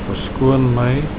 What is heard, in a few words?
excuse me, Afrikaans